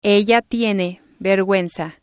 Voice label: "ella tiene verguenza", spanish